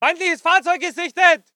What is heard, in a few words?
voice ger infantry